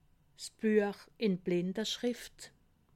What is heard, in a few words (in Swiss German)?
"blindeschrift", alsace